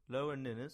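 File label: "Lower Ninnes" English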